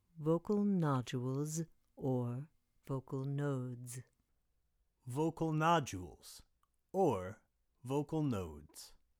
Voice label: vocal nodules vocal nodes